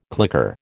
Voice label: "claqueur", American